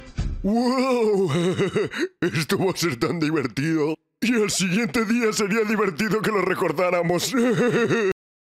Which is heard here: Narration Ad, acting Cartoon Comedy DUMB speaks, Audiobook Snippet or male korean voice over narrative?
acting Cartoon Comedy DUMB speaks